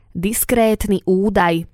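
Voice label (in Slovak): "diskretny udaj", Slovak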